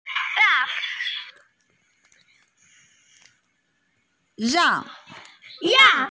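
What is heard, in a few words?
"rang4", Mandarin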